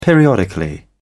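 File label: "periodically", British English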